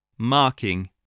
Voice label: "marking", spelling voice

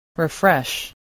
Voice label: "refresh", spelling voice

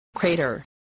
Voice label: "crater", voice spelling

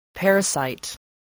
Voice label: "parasite", English, voice

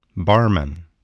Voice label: "barman", English

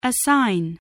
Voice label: "assign", english

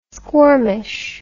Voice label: "squirmish", english

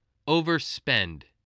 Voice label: "overspend", English voice

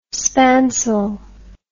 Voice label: "spansule", english

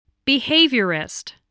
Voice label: "behaviorist", English

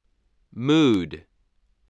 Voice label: "mood", voice, spelling

